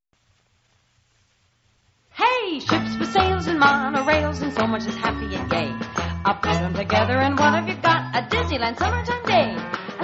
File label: radio spot; disneyland